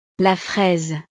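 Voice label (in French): "la fraise", French; frutas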